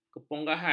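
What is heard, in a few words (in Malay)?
"kepongahan", Malay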